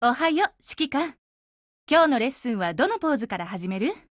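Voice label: HELLO, Japanese; GF Alarm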